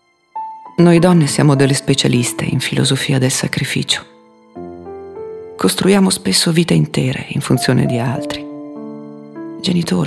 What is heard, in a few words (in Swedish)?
Ledsen italienska